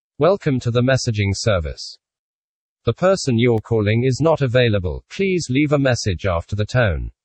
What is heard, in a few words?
male, UK